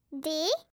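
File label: "D", French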